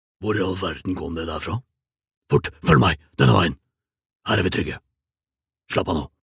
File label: dub, character